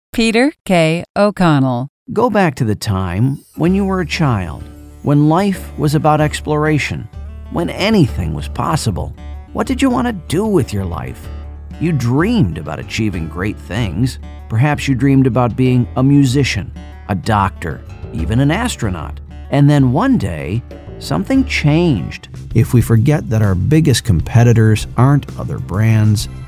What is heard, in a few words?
Narration